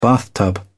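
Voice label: "bathtub", British English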